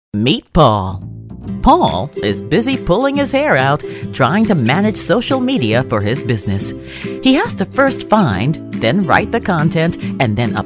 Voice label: Explainer Videos

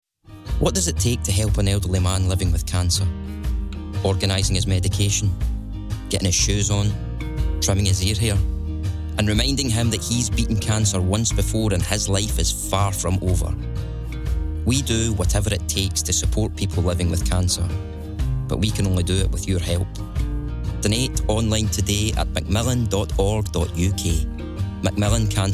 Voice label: Cancer Commercial; Macmillan

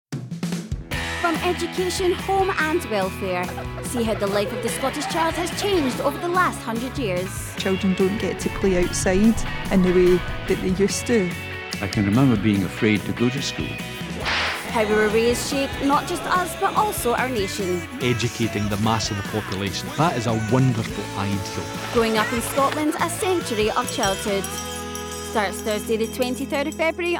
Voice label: Idents Promos and Trails; BBC

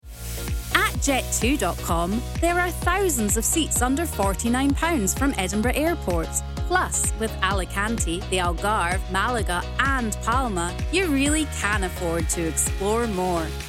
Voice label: Commercial; Jet 2

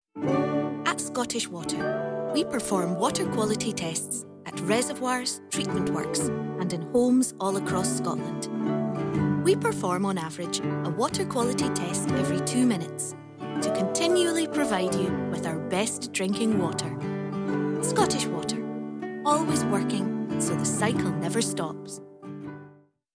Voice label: Commercial; Scottish Water

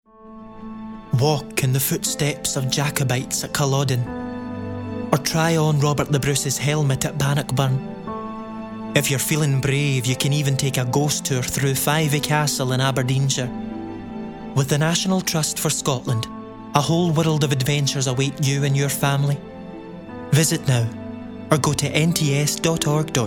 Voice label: Commercial; National Trust Scotland

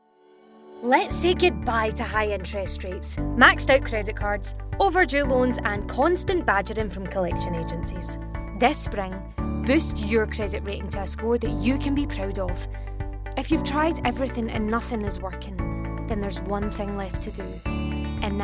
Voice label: Corporate Commercial; Creative Credit Solutions

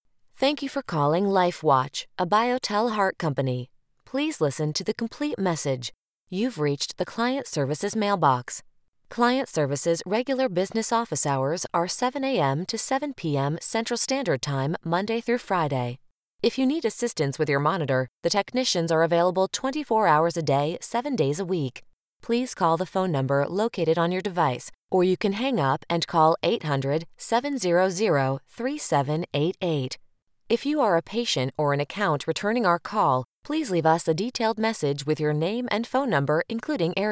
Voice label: IVR

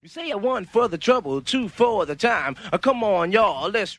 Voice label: Vocals ('come on, y'all'); What Evil Lurks

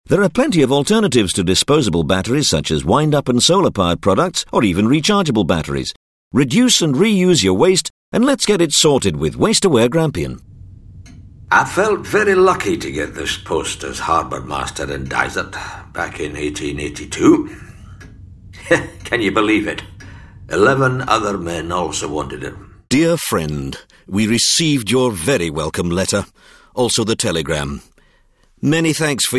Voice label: Advert Samples

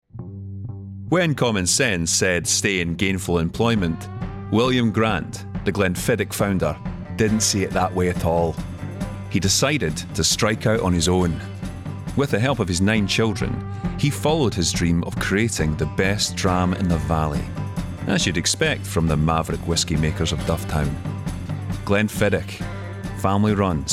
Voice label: Commercial; Glenfidditch Whiskey

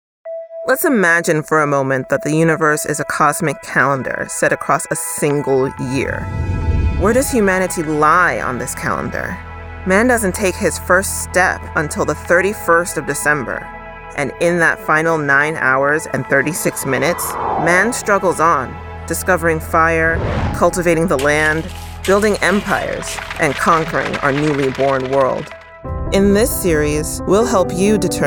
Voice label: Narration

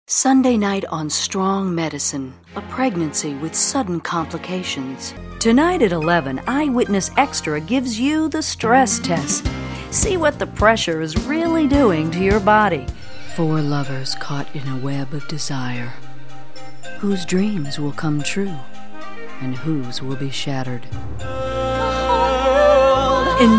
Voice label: Promos Trailers

